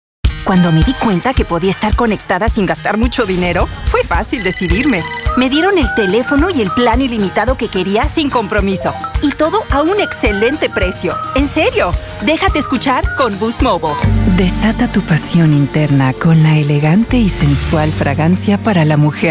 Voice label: Spanish Commercials

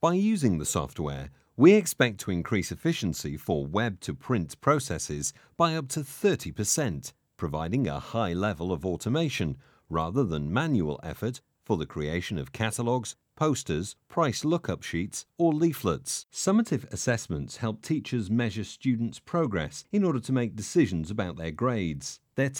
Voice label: e-learning

